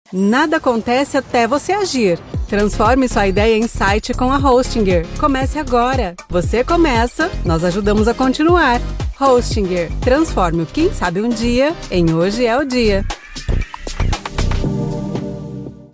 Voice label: portuguese brazilian corporate videos

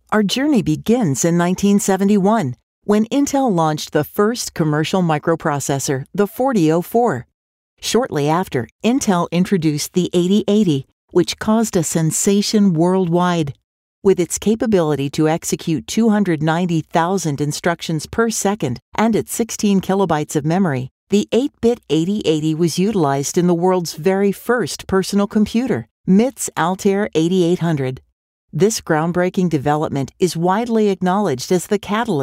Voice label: english american narration

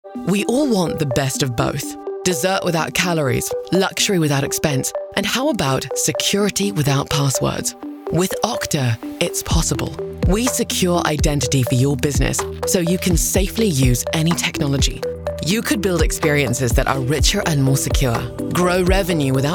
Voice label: english british narration

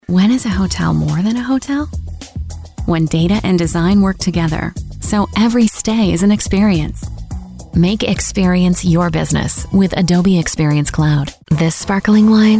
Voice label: english american commercial

